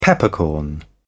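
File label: "peppercorn", British English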